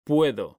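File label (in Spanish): "PUEDO", Spanish; mekanta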